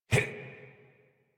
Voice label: Adult Operator Jump, en; Warframe; New War

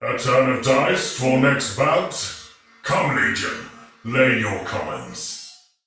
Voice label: Patrol spoken, male soldier; Dialog; Barks; Battle; Sinecure

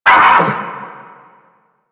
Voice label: Vocal Pain

